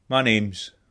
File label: my name is scots